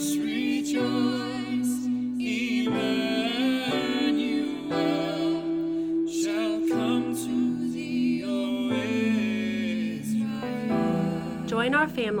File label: Radio Spot Christmas